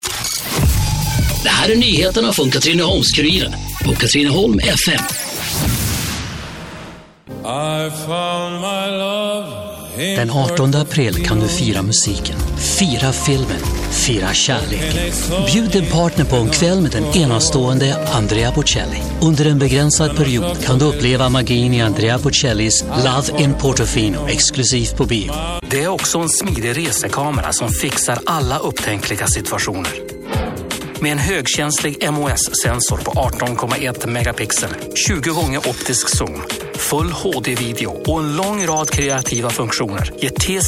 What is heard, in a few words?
swedish radio ad